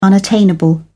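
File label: "unattainable", British English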